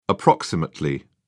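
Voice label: "approx", British English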